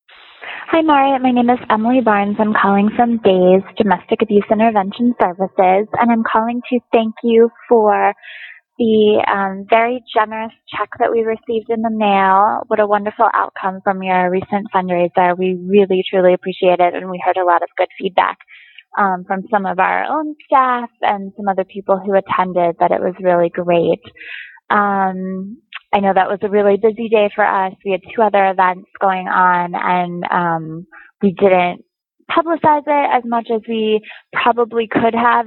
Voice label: Phone Message